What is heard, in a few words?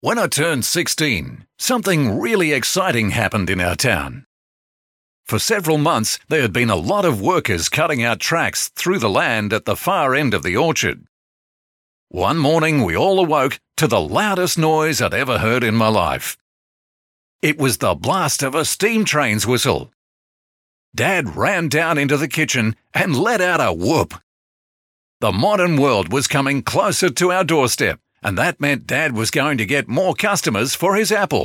audio book voice over